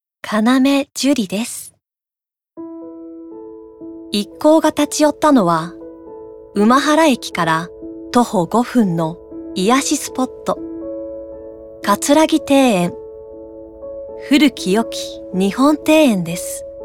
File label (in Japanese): ノーマル